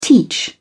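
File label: "teach", British English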